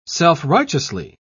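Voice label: "self-righteously", British English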